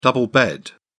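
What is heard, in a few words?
"double bed", British English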